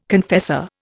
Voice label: "confessor", Indian

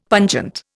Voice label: "pungent", Indian; Dictionary

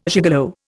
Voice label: "gigolo", Indian

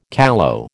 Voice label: "callow", American

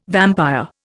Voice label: "vampire", Indian

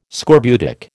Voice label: "scorbutic", American

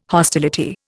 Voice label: "hostility", Indian

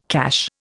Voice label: "cache", Indian

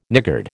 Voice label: "niggard", American

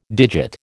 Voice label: "digit", American

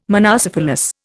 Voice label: "monocephalous", Indian

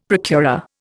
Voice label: "procurer", Indian; Dictionary

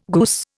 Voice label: goose, Indian

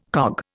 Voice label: "cog", Indian

